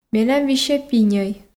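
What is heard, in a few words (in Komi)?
менам висьӧ пиньӧй, Komi, female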